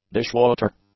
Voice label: "dishwater", English

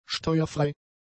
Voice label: "steuerfrei", German; adjectives

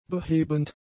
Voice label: "behebend", German; adjectives

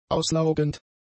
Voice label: "auslaugend", German; adjectives

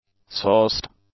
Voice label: "sauced", English; adjectives

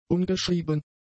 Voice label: "ungeschrieben", German; adjectives

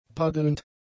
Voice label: "paddelnd", German; adjectives

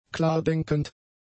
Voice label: "klardenkend", German; adjectives

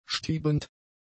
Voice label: "stiebend", German; adjectives

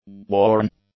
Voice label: "worn", english; adjectives

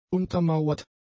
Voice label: "untermauert", German; adjectives

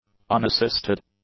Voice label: "unassisted", English; adjectives

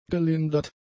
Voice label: "gelindert", German; adjectives

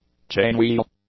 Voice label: "chainwheel", English; nouns

